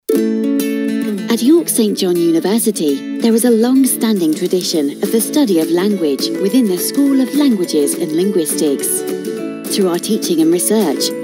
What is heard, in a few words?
Promo Film